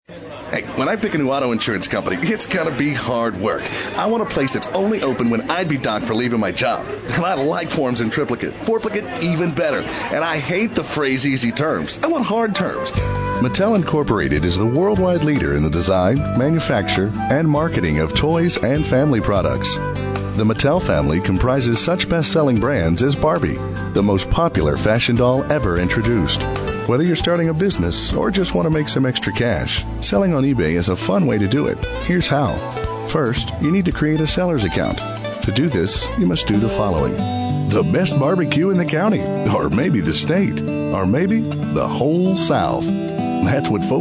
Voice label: COMMERCIAL; commercial voiceover

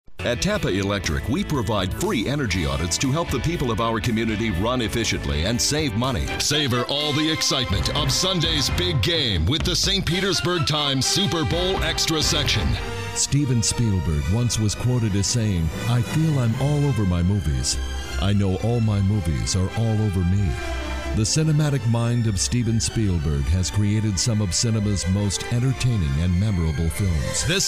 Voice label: Commercial Male; Commercial Voiceover, TV and Radio

